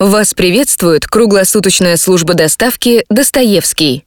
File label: IVR